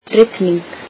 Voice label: icelandic rainy